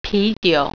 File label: "beer", Mandarin